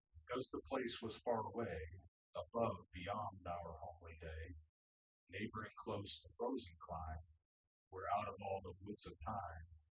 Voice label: male synthconv; SiSEC08; SiSEC underdetermined

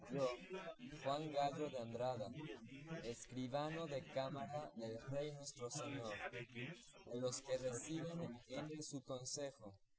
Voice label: male4 inst sim

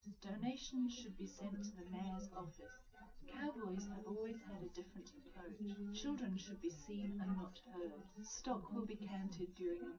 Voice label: test female live rec; SiSEC11; underdetermined

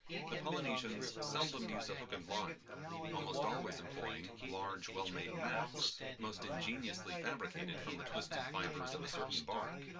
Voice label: male synthconv

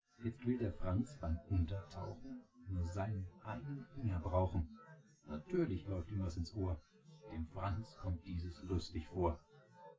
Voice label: male synth conv; SiSEC10; underdetermined

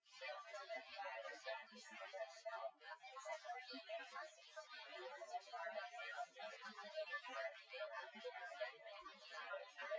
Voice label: female synthconv 130ms 5cm

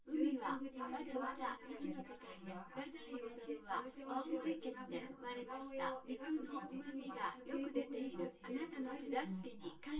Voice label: female synth conv sim; SiSEC10; underdetermined

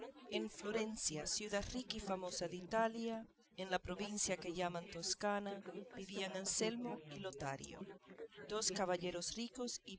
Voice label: female inst src; SiSEC08; SiSEC underdetermined

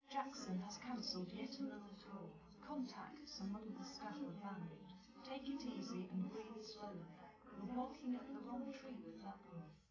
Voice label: female

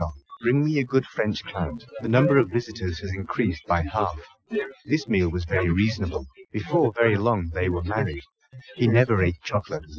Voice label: male inst src; SiSEC11; underdetermined

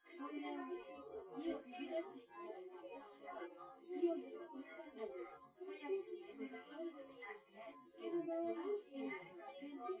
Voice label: female synthconv

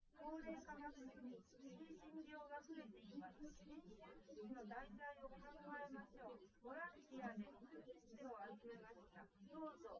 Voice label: test female liverec 250ms 5cm sim; SiSEC08; SiSEC underdetermined

